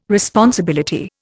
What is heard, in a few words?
"responsibility", Indian